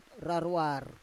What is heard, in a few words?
"rarwar", Roon